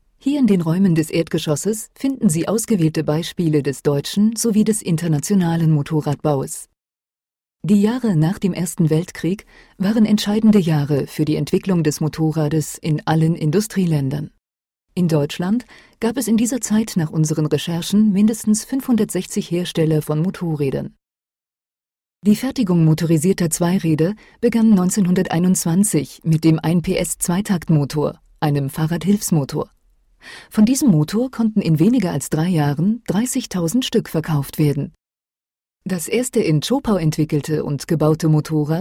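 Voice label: German, female, corporate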